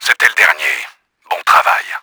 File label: VICTORY, french; Battle Engine Aquila; XBOX